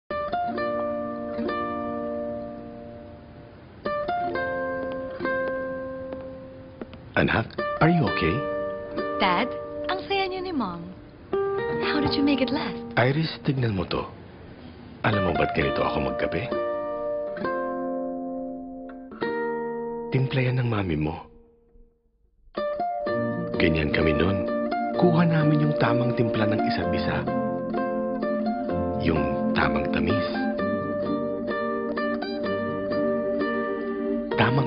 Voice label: Tagalog (warm, serious)